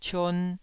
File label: "cion2", taiwanese